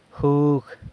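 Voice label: "jug", juice, San Lucas Quiaviní Zapotec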